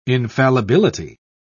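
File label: "infallibility", British English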